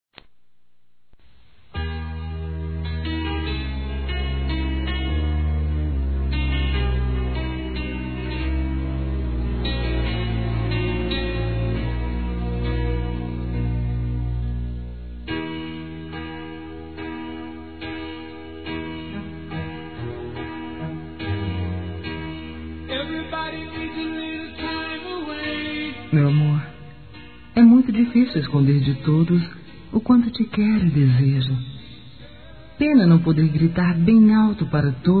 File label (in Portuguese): Telemensagens Amor Fem; Telemensagens 2017